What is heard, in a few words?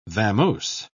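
"vamose", British English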